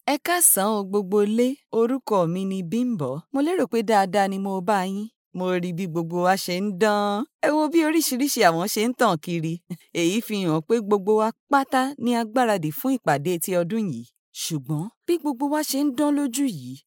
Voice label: yoruba voiceover locutora yoruba; nigerianos